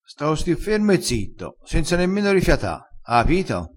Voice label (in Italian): "rifiata", crespinese, vocabolario dialettale